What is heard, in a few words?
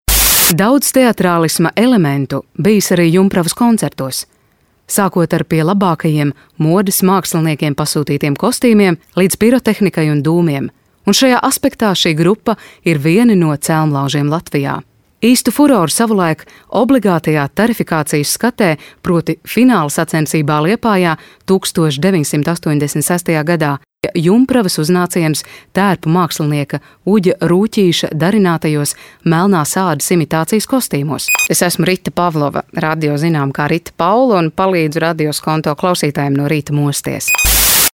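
latvian female commercial; voice demos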